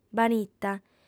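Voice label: "banita", female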